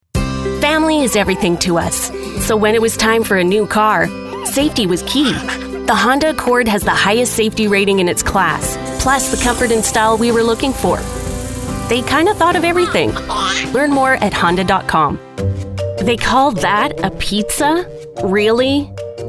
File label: Commercial